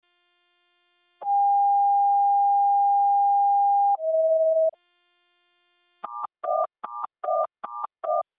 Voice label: standard general allcall